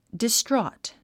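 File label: "distraught", English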